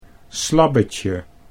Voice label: "slabbetje", Dutch